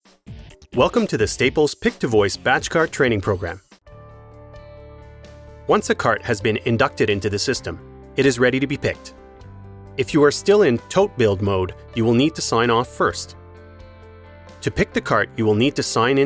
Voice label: English; STAPLES P2V